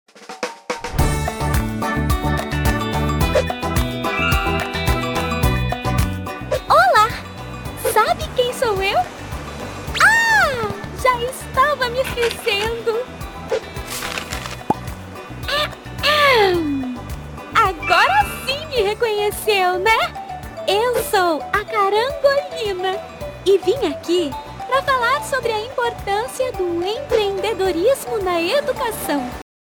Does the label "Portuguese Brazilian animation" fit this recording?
Yes